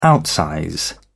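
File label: "outsize", British English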